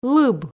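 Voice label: "лыб", Komi, female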